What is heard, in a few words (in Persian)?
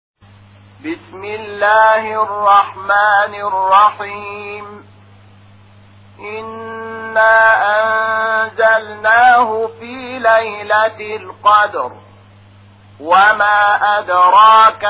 ترتیل قرآن کریم سوره قدر; محمود شحات انور